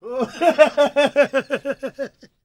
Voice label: PREACHIN; Spectrasonics Vocal Planet; Jazz FX